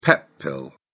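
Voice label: "pep pill", British English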